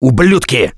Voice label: reaction negative, russian; Gambler

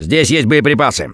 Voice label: Spot Ammo, russian, Survivor Voice; Gambler

